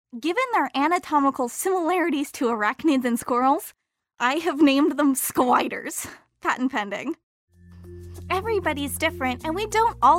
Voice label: En

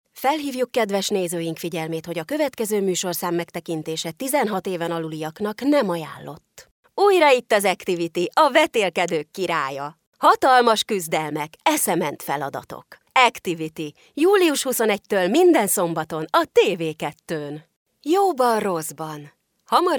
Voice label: Hungarian female

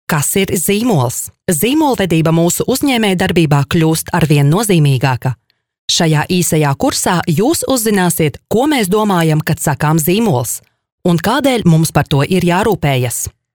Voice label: Latvian female

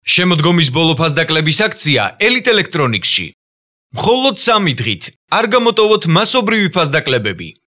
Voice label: Georgian Male

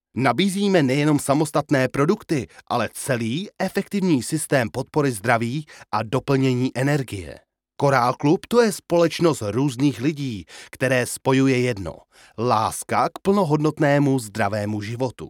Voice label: Czech, golosa treki

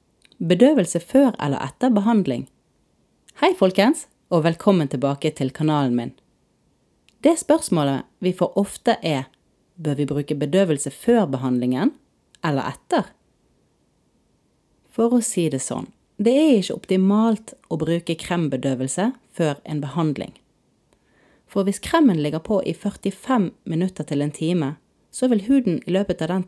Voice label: Norwegian female

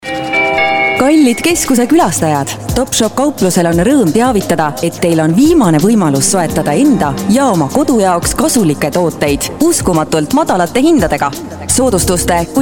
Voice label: Estonian female

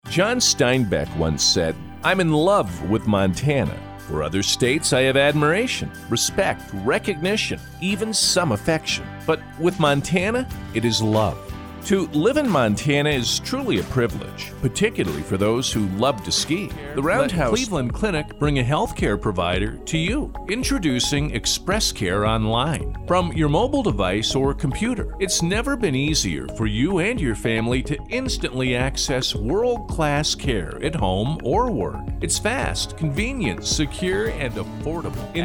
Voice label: ENG-USA

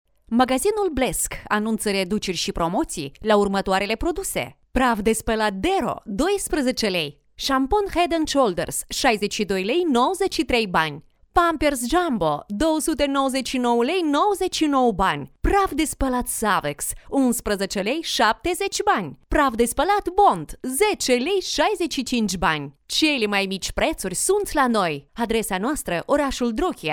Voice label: Romanian female

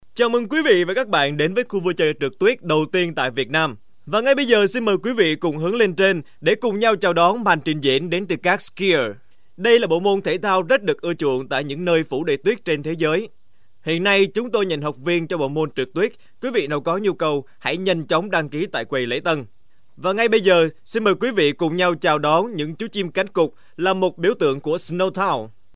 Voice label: Vietnamese

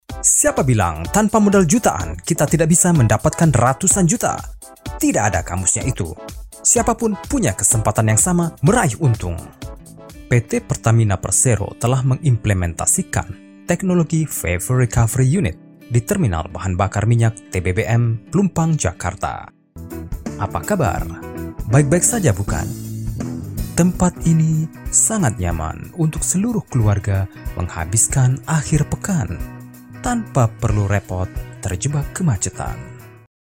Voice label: Indonesian

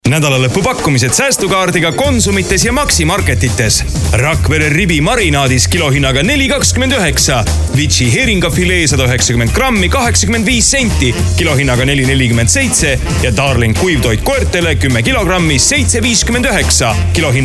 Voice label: Estonian

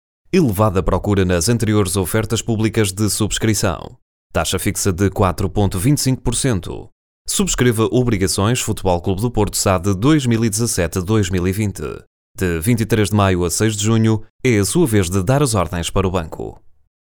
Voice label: Portuguese - Iberian

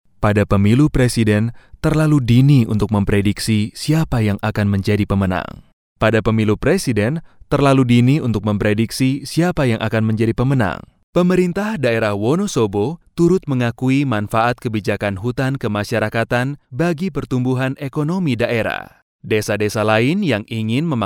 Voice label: Indonesian

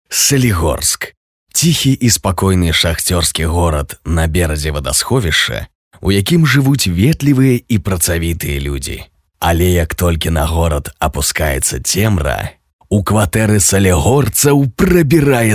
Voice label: Belarusian male

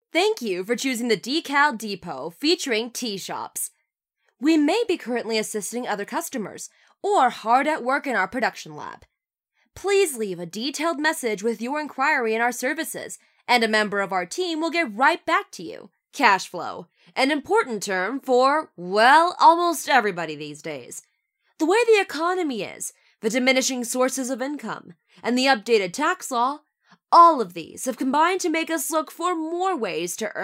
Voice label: English American

